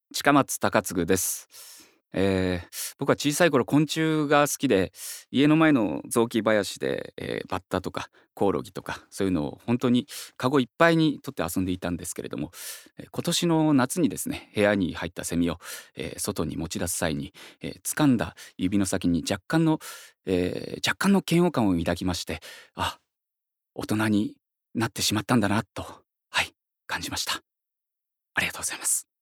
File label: Freetalk, male voice